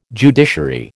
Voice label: "judiciary", American

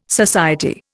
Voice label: "society", Indian

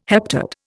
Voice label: "heptad", Indian

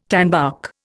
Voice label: "tanbark", Indian

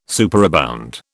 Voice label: "superabound", British

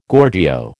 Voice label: "Gorgio", American

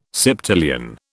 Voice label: "septillion", British

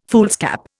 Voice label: "foolscap", Indian

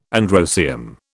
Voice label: "androecium", British

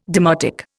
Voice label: "demotic", Indian

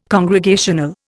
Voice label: "congregational", Indian

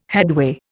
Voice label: "headway", Indian

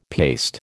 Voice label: "paste", American

